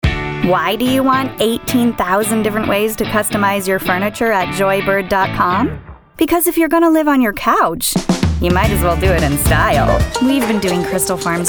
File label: female commercial